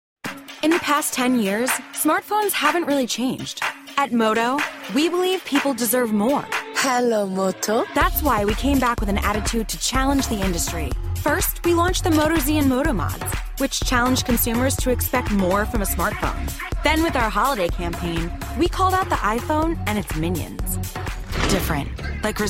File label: commercial women